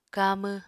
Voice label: "kamw", Apatani